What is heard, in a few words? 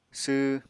"sww", Apatani